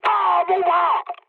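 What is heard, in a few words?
damaged pilot, mood high, French; War Thunder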